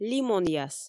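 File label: "лимонъяс", Komi, female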